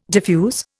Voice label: "diffuse", Indian